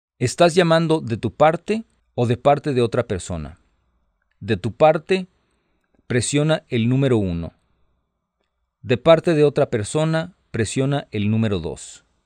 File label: Spanish, hotline